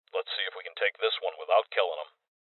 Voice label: Voices Boss Spotted Male; rebel cops 2019